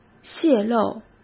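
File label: "泄露", Mandarin Chinese; chinese-flashcards; hsk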